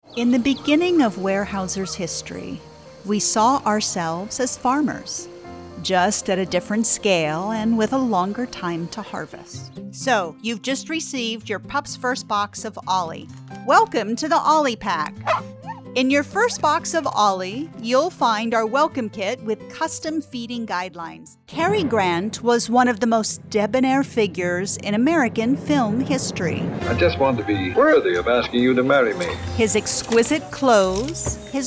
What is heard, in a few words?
NARRATION